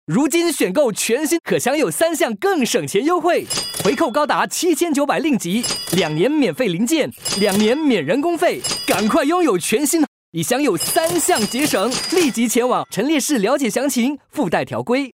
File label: FM; Honda